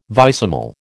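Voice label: "vicesimal", American English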